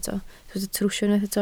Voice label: "trwsio", Welsh

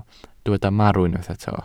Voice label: "marw", welsh

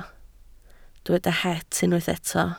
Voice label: "het", Welsh

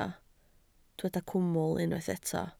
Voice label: "cwmwl", Welsh

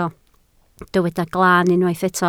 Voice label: "glaan", Welsh

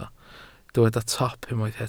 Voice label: "top", Welsh

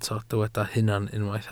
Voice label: "hunan", Welsh

